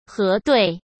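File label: "核对", Mandarin Chinese; chinese flashcards; hsk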